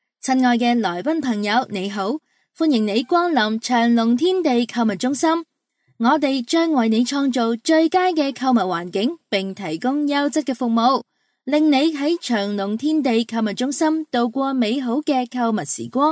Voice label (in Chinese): 商场广播 粤 中 英; 龙翔天地